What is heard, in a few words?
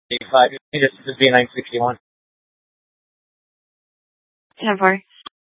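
Police Department Dispatch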